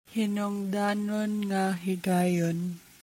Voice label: Cebuano; defining moment - mahalagang sandali